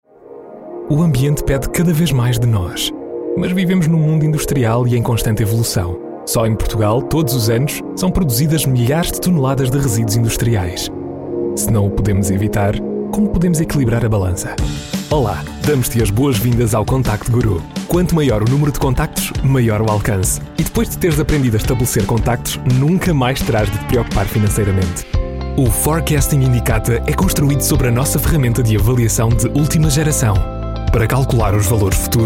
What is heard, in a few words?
NARRATIONS